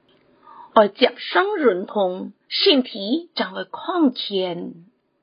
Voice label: (海陸)客語; 生活常規

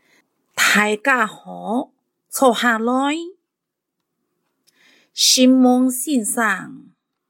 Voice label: 客語 課室生活用語 海陸腔

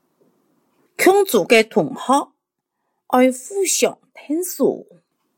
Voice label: 客語 課室生活用語 四縣腔

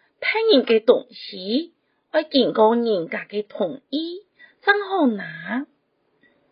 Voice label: (四縣)客語; 生活常規

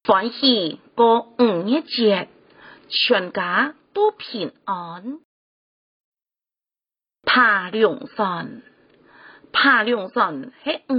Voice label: 四縣腔; 端午節海報

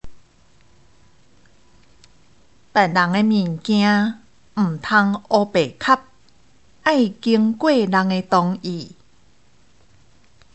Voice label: (閩)生活常規

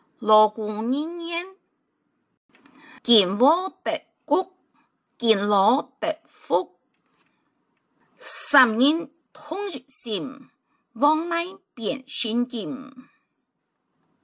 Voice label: 客語俗諺, 海陸腔

